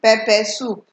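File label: "pepper soup", Nigerian Pidgin, female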